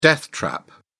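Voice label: "death trap", British English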